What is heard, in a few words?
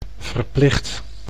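"verplicht", Dutch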